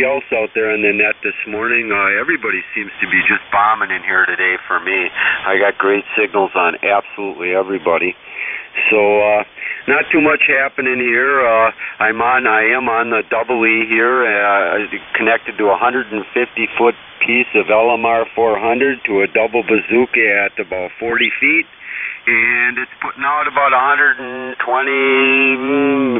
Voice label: Yaesu FT101EE; Hustisford, WI